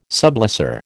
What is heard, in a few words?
"sublessor", American